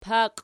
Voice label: "paqi", Archi